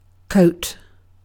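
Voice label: "coat", British English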